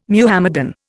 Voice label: "Muhammadan", Indian